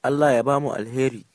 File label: good night, hausa